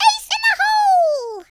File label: "drop", Cowgirl; speech